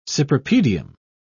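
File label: "cypripedium", British English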